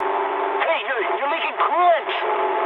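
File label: damaged water mood medium, speech English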